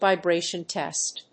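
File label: "VIBRATION TEST", English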